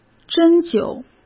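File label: "针灸", Mandarin Chinese; hsk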